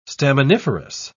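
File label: "staminiferous", British English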